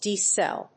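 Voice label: "D Cell", English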